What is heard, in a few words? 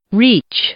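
"reach", English, female; verb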